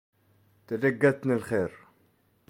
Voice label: "Tadagat Nalkher", Touareg; Buona sera